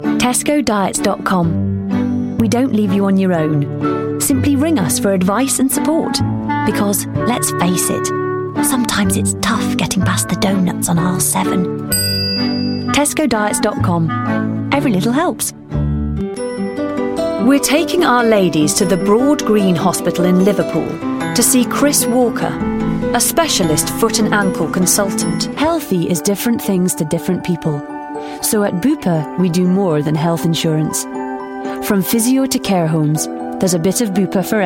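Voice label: English UK Commercials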